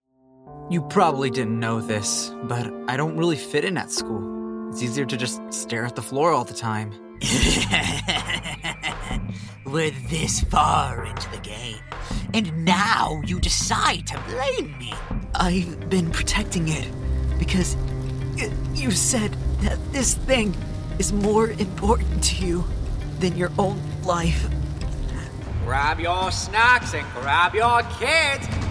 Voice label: Animation